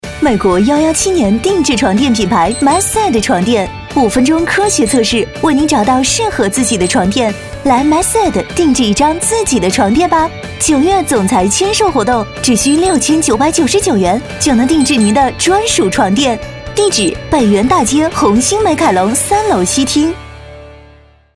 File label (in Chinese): 女样; 红星美凯龙